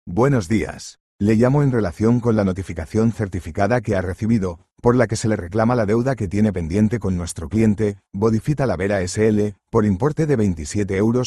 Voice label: audio call